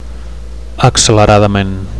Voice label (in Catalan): "acceleradament", Catalan; quickly; rapidly; adv